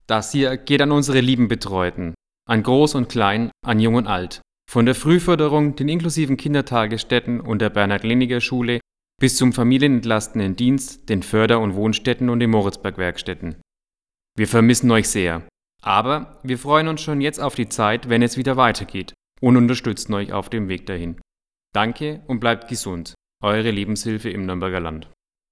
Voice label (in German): radiospot; corona